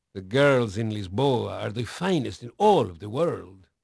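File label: Portuguese male, voice; Pirates Of The Caribbean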